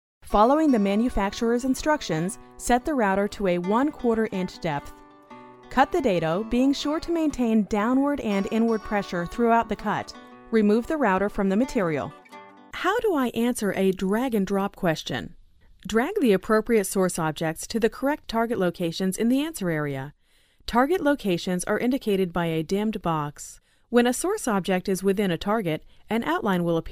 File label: eLearning Female; commercial voiceover